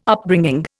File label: "Upbringing", Indian